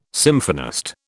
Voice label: "symphonist", British